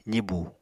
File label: "zibu", Apatani